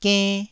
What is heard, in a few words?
"genn1", Taiwanese